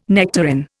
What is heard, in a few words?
"nectarine", Indian